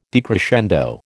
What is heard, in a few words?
"decrescendo", American